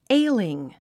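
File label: "ailing", English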